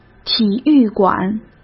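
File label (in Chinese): "体育馆", Mandarin Chinese; hsk; chinese-flashcards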